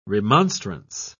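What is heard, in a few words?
"remonstrance", British English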